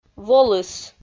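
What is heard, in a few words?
"волыс", Komi, female; audio dict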